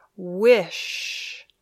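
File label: "wish", English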